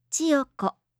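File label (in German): "Chiyoko", japanische Mädchennamen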